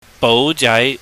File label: "boujai", Cantonese